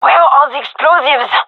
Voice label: query bomb, gendermerie fem; vo